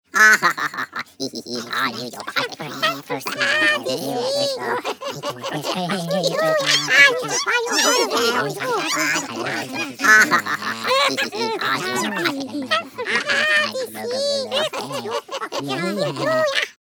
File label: cartoon gossip voices; мультяшный смешной смех различных персонажей